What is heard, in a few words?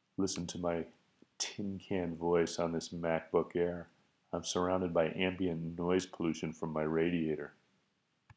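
tin Can Voice, Microphone Comparison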